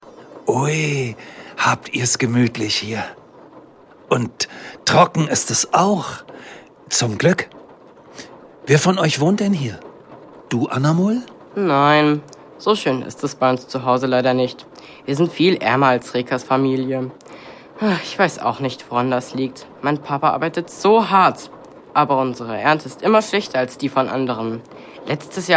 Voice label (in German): Hoerspiel